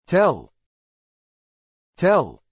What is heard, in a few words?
"tell", English